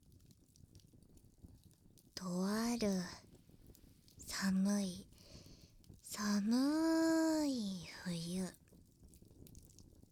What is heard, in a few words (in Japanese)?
耳かき昔話; 焚き火と星と耳掃除; 耳かき昔話【冬山の竹筒】